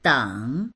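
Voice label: "deng3", Mandarin Chinese; 等; 待つ; dictionary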